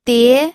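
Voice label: "die", pinyin, second tone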